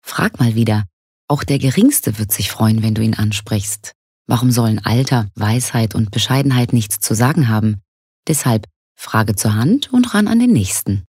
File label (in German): Werbung Sanft